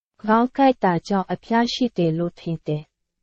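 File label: Burmese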